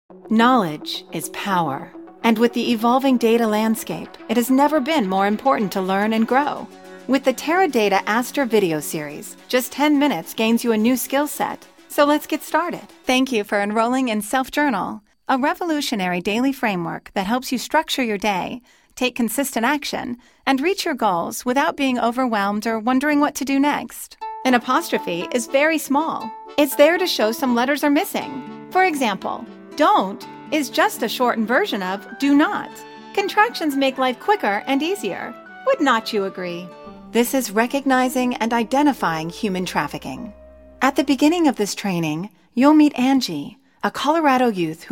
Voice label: english american e-learning